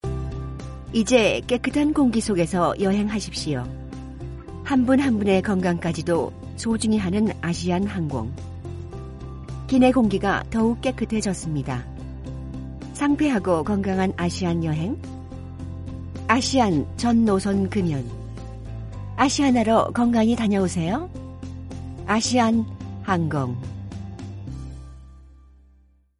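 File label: Korean female Commercial; korean accent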